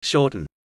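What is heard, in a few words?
"shorten", English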